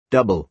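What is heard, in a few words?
"double", American English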